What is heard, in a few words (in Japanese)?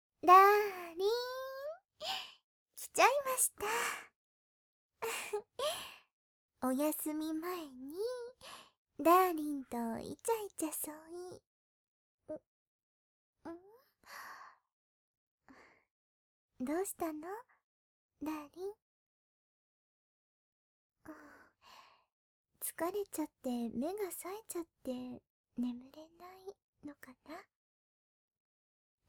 恋人として添い寝（左・BGMなし）; 七海さん恋人として添い寝